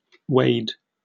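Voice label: "weighed" English, British; pronunciations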